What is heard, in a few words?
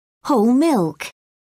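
"whole milk", British English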